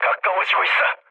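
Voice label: Korean near waypoint mood low; War Thunder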